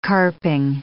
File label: "carping", English